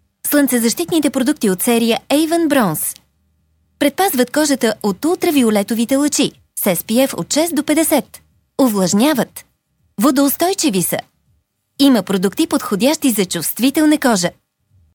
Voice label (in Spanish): locutora bulgara